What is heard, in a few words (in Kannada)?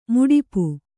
"ಮಡಿಪು", kannada